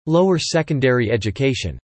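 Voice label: "lower secondary education" spoken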